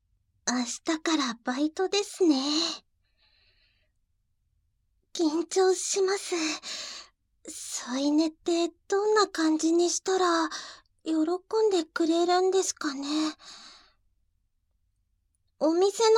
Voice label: voice Only, ASMR